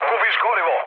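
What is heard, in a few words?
Serbian tank damaged mood low; speech; War Thunder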